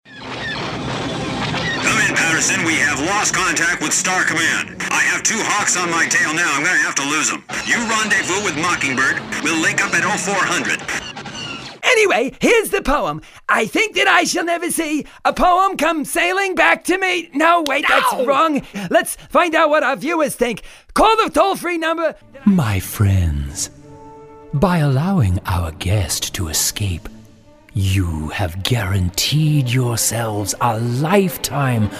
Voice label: Animation